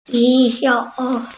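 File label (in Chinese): "ju3 shou3" Mandarin Chinese, female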